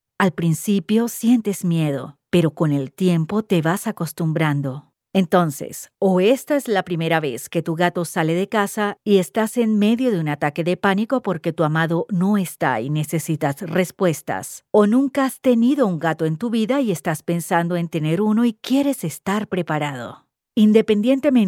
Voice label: 西班牙语女 外语 小语种

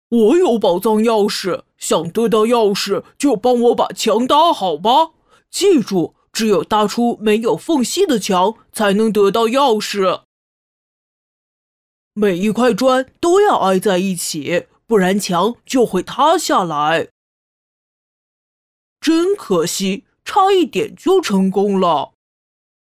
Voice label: 胖胖憨憨音; 动画 角色; 豹老板

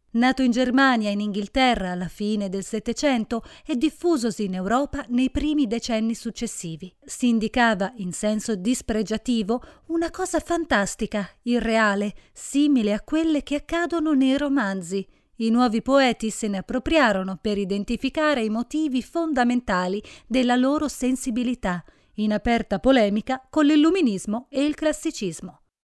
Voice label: 意大利语女, 外语 小语种

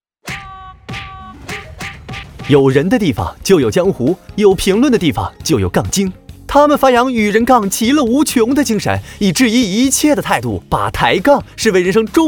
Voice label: 宣传片 病毒 杠精

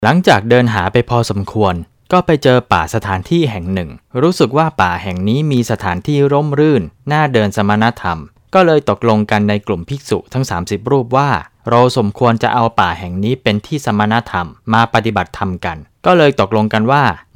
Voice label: 泰语男, 外语; 小语种

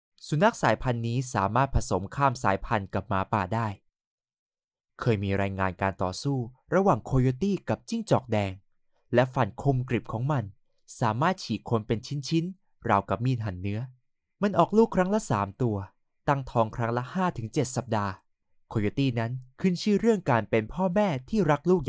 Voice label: 泰语男 外语 小语种 年轻

